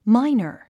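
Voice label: "miner", English; 発音 máinə r マイナァ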